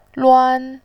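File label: "luan", Mandarin, tone 1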